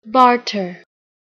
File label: "barter", English